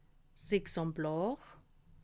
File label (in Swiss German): "examplar", alsace